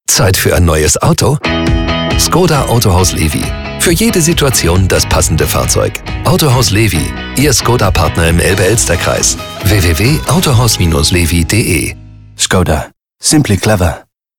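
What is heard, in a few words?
German Werbung; Skoda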